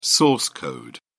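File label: "source code", British English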